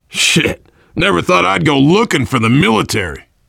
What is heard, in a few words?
world farmhouse, voice, biker; Left 4 Dead 2; left4dead2 dlc3; survivor